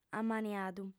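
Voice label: "amaniadu", lingua sarda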